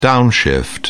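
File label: "downshift", British English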